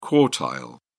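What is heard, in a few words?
"quartile", British English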